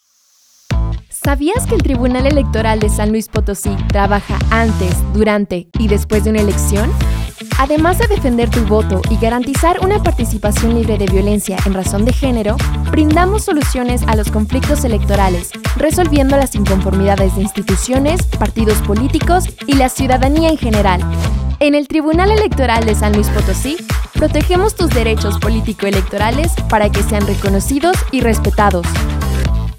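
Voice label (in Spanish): spot de radio; Tribunal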